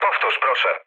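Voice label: Polish chat; War Thunder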